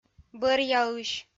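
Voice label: "бӧръяысь", Komi-Zyrian, female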